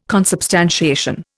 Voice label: "consubstantiation", Indian